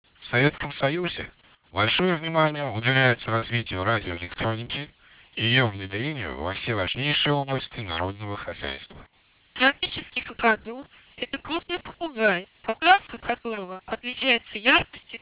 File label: speech lpc1200